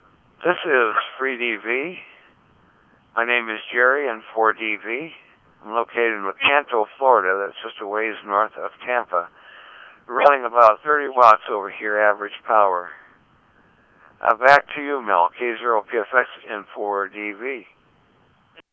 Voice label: FreeDV